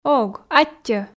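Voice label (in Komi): ог аттьӧ, Komi, female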